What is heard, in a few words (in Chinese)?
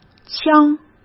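"枪", Mandarin Chinese; chinese flashcards; hsk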